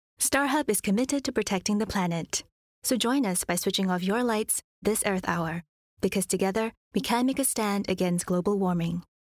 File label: english singapore announcements